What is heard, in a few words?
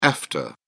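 "efta", British English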